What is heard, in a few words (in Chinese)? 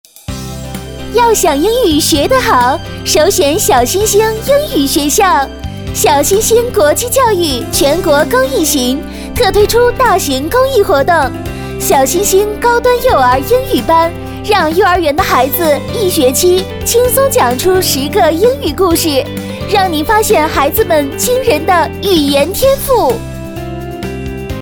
女 广告 欢快激情, 国语女声配音; 小新星英语学校